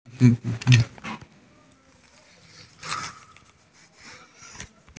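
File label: "pencil", Mandarin; qian1bi3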